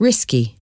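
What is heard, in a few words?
"risky", English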